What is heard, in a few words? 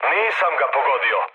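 Serbian bomb failure mood med, speech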